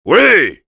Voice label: Heavy yes, fr

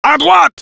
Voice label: Sniper head right, fr

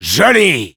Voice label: Sniper cheers, fr

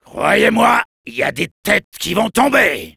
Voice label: Soldier jeers, fr

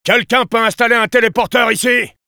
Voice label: Engineer need teleporter, fr

